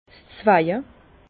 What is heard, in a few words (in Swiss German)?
"zweje", Bas Rhin; vin; greffe